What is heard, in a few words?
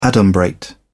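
"adumbrate", British English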